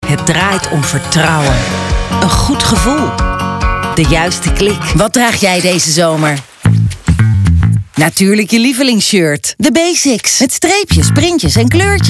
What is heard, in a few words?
Voice Over